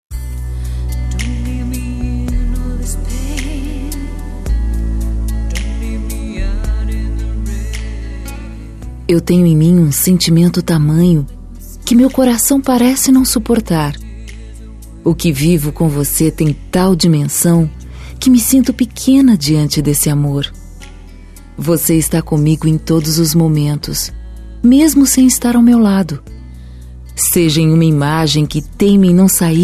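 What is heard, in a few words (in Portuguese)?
Namorados Voce Me Encanta, female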